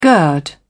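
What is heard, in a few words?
"gird", English, British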